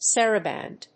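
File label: "saraband", English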